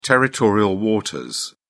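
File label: "territorial waters", English, British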